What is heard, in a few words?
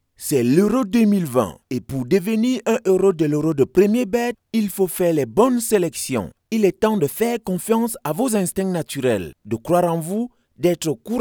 Energitic - Promotion - Commercial